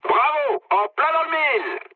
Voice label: bomb success mood med, speech fr; War Thunder

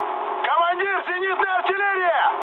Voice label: damaged aa, mood med, speech ru; War Thunder

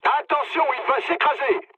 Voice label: aircraft destroyed mood low, speech fr; War Thunder

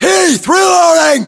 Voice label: inform reloading, US

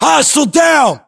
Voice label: inform killfirm infantry, US

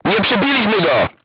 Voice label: voice message armor not pierce, crew tanks polish

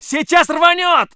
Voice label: voice sov infantry

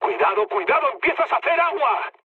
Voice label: damaged water, mood high, speech; War Thunder

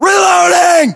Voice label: inform reloading generic, US

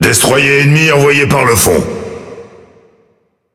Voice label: Speech Destroy Enemy Destroyer, FR; World Of Warships

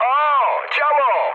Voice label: damaged aa mood high, speech pol; War Thunder

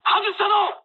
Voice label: bomb failure mood high, speech jp; War Thunder

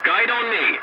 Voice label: voice message follow me, english; War Thunder

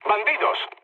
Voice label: enemy detected mood low; War Thunder

